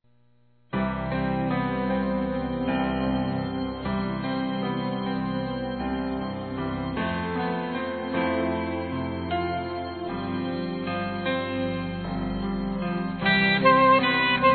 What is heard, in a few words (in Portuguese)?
PARA Idoso Feminino, Telemensagens, Dia dos Pais; Telemensagens 2017